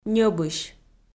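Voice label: "ньӧбысь", Komi, female; audio dict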